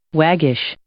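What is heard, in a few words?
"waggish", English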